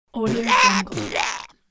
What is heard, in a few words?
cartoon mocking voice